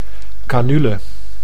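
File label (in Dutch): "canule", Dutch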